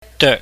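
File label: "deuk", Cantonese, mid tone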